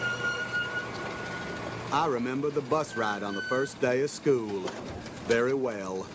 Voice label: noisy; ForestGump; Interspeech2015: Multi-objective Learning and Mask-based Post